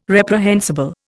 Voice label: "reprehensible", Indian